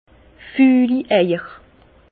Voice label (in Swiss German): "fullieier", Bas Rhin; vin; oeuf pourri